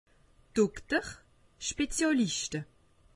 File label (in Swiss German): "dokter", alsace; medecine